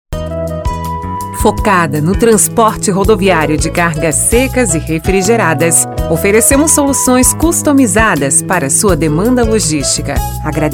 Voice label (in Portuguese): espera, esperas telefonicas; PRECISO TRANSPORTES